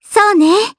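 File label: Vox Japanese; Kirze; kingsraid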